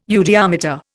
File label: "eudiometer", Indian